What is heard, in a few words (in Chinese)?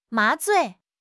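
"麻醉", Chinese; HSK; HSK6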